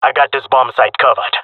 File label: guarding bombsite, jungle male; player vo; Counter Strike Model Pack; CS:GO